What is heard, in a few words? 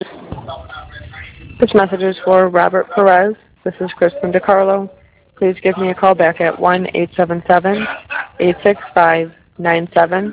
Voicemail; Violations